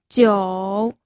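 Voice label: "jiu3", Mandarin Chinese third tone; pinyin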